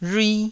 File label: "rhui1", taiwanese